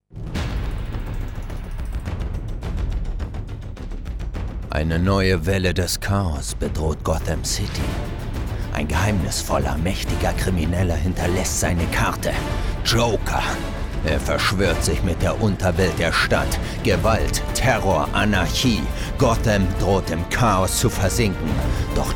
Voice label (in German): kino trailer